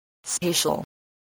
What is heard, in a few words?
"spatial", English voice